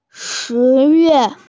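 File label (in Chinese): "shi2yue4", Mandarin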